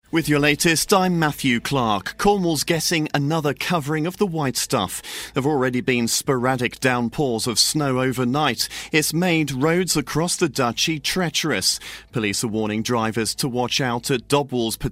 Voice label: voice over